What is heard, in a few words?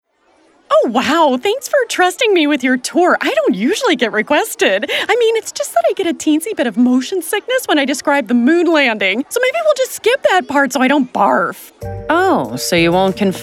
Animation